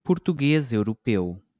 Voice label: "português europeu", português europeu

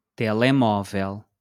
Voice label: "telemóvel", portuguese

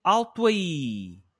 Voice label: "alto-aí!", portuguese

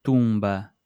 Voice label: "tumba", portuguese

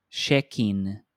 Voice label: "check-in", Portuguese

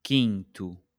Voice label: "quinto", portuguese

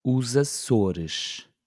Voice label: "os açores", Portuguese

